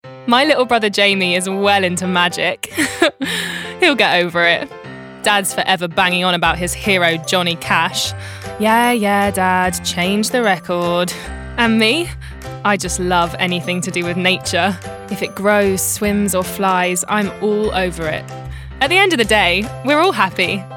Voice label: Advert

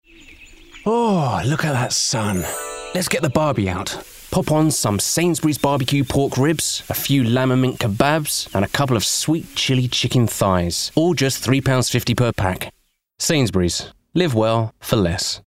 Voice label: COMMERCIAL; Sainsburys

